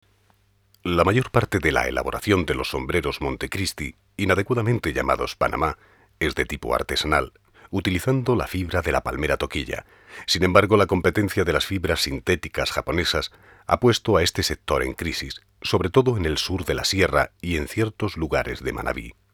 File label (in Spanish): documental